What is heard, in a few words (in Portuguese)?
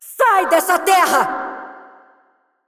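assist, Brazilian Portuguese; Irelia; bloom minigame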